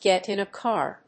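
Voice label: "get in a car", English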